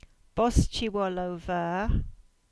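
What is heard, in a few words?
"Bosullow Veor", Cornish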